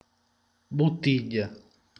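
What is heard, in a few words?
"bottiglia", Italian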